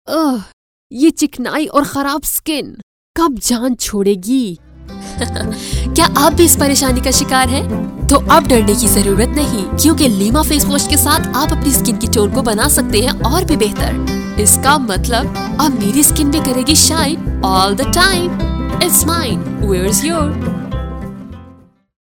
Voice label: Corporate Narrations